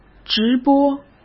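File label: "直播", Mandarin Chinese; chinese-flashcards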